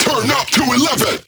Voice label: Vocal Phrase Male Turn Up; bass house; fx vocals

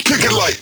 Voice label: Vocal Phrase Male Kick It Like; bass house

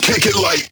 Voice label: Vocal Phrase Male "Kick It Like"

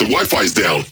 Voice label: Vocal Phrase Male "Wifi"

